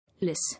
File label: "Lease", English